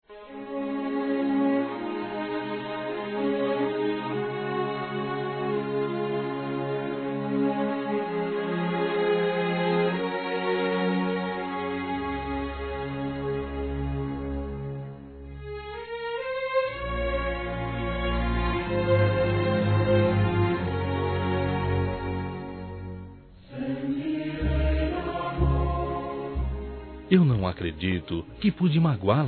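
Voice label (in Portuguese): desculpas mãe, masculino; Telemensagens 2017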